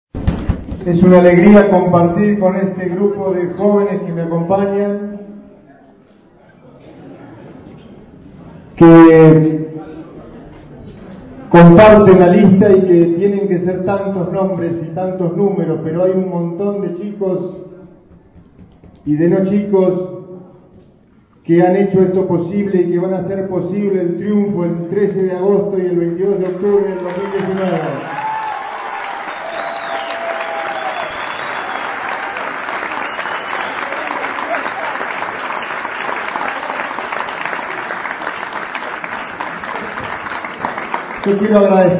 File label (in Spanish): discurso; lanzamiento campana; discurso